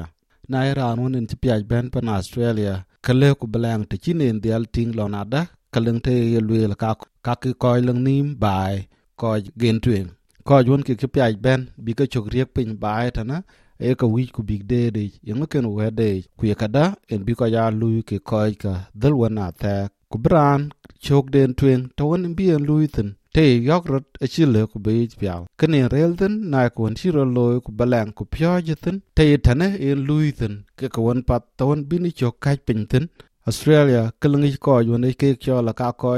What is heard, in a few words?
Dinka; Ke dun tuëŋ leu ba looi ba liääp keek akut Indigenous Australians; SBS Dinka